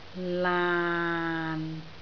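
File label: "laan6", Cantonese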